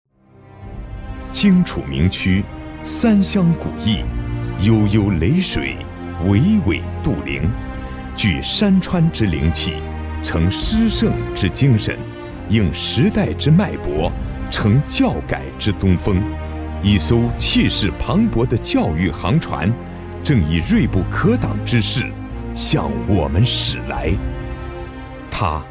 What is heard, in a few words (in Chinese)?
男 大气 耒阳